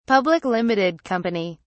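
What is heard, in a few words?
"Public Limited Company", British English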